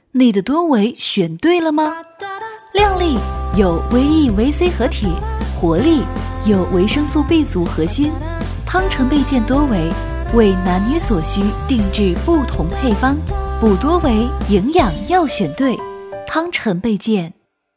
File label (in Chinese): 女-品牌广告-年轻活泼; 汤臣倍健